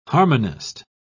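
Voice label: "harmonist", British English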